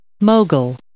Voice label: "mogul", English

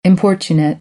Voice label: "importunate", American; AmericanBritish

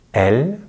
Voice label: "elle", French

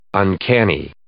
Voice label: "uncanny", English

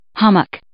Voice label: "hummock", English

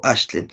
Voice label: "axtlen", Náhuatl; de nada; you are welcome

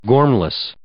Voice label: "gormless", English

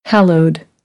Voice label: "hallowed", American; AmericanBritish

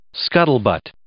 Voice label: "scuttlebutt", English

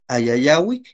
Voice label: "ayayauik", Náhuatl; café; brown

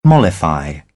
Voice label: "mollify", British; ə - ɪ; English

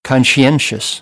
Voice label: "conscientious", American English; ɑː - ɒ

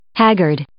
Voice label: "haggard", English

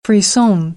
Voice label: "frisson", American; AmericanBritish

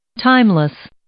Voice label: "timeless", English

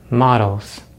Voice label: "models", English; Consonants in American English; easypronunciation

